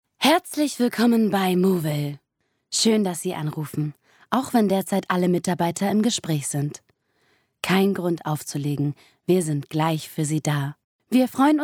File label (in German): telefonansage